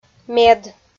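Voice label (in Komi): "мед", Komi, female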